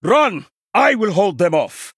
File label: Male Draenei Play